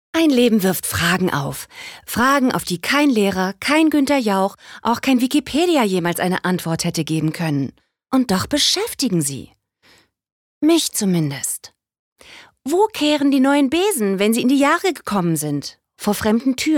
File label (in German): Schauspiel Witzig